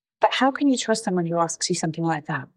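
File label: Voice Acting es, female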